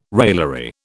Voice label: "raillery", British

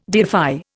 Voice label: "deify", Indian

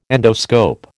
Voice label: "endoscope", American

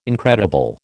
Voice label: "incredible", American

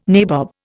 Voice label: "nabob", Indian

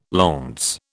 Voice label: "launce", British

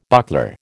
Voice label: "buckler", American

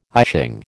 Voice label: "I Ching", American

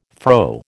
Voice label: "fro", American

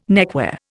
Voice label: "neckwear", Indian

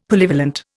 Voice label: "Polyvalent", Indian; Dictionary

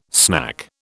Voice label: "snack", British

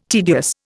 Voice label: "tedious", Indian

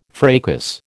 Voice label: "fracas", American

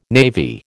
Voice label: "navy", American

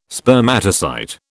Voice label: "Spermatocyte", British

